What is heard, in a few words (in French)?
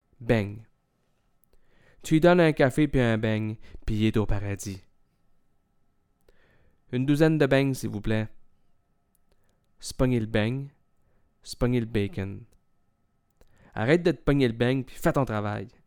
beigne, québécois